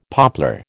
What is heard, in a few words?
"poplar", American